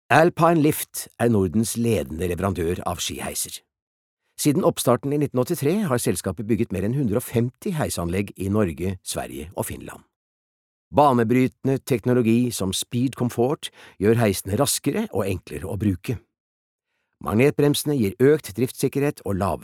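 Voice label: Norwegian corporate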